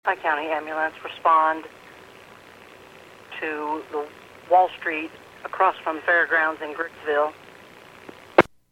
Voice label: PAGING